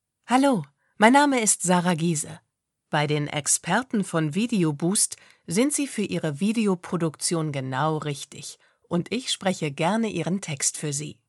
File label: Voiceover